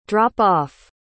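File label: "drop-off", English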